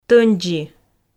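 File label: "тӧндзи", Komi, female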